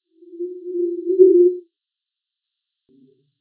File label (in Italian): sto tanto male; Castello Monselice